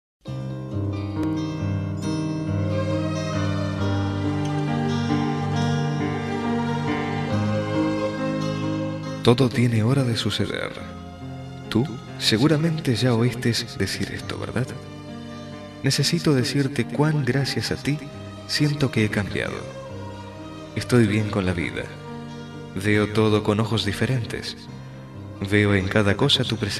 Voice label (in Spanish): Quero conocerte mejor M; Variadas em Espanhol; Masc